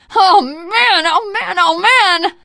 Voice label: reaction negative, teen girl; survivor voice